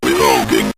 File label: reloading, synth voice; Kenney's Sound Pack